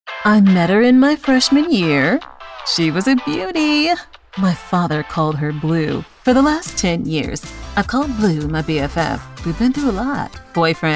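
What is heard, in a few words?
Commercial, Female Voice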